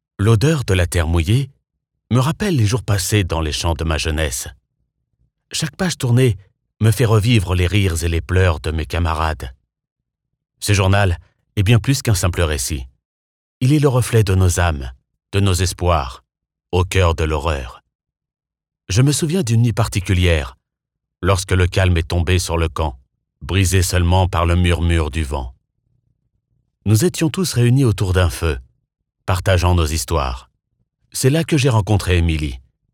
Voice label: audiobook, French Male Voice; Audiobooks; During The War